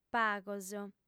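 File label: "pagos" Sardinian, female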